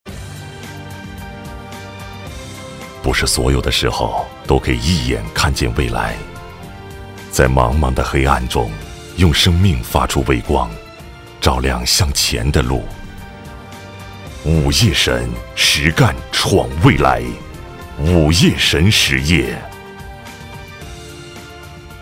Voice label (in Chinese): 广告, 品牌形象, 厚重; 五叶神实业